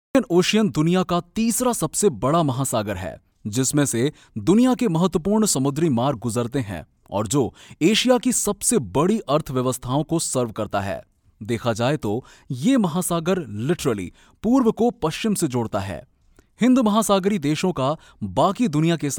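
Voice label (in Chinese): 印地语男_外语_小语种_样音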